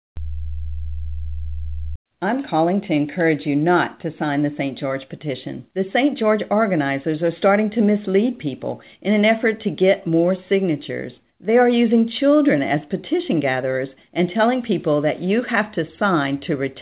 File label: robocall